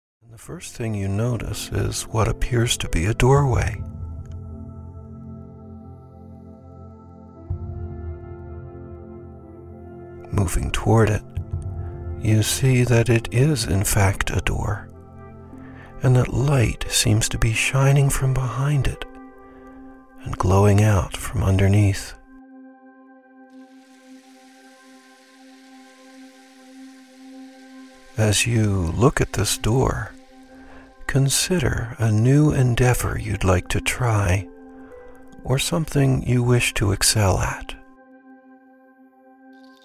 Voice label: Confidence Meditation

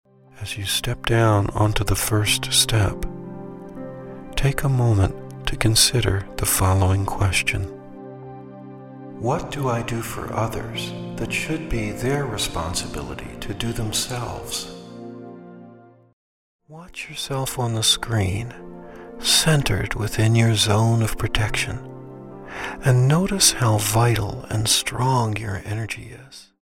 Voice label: Meditation

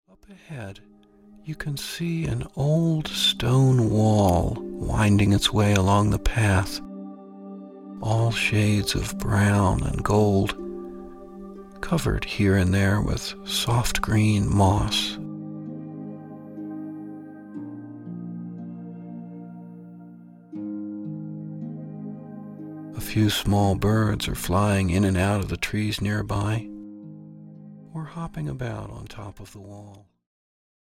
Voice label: Lighten Meditation

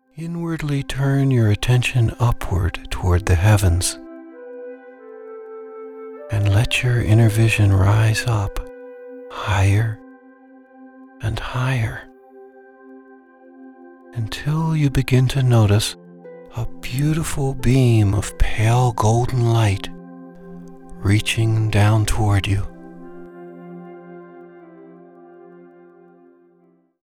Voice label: The Healing Light Meditation

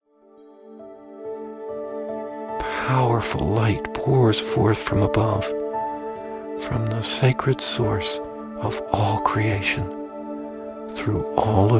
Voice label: Absent Healing Meditation

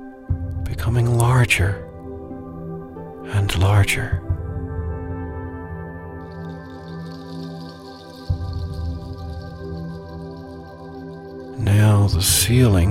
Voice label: meet your guide meditation

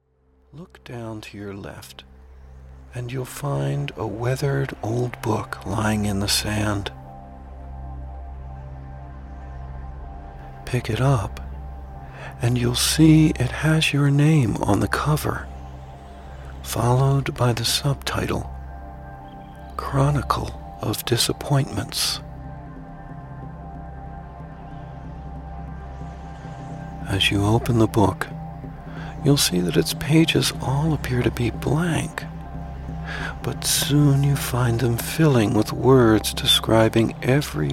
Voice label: Bitterness Meditation

